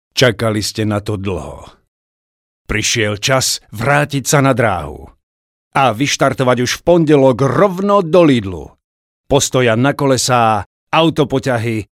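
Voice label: Slovak, male, commercial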